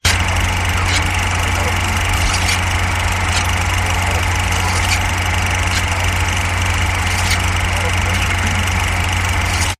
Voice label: Voices On Tape